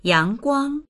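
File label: "yang2guang1", Mandarin Chinese; yángguāng; 阳光; 日光、太陽光線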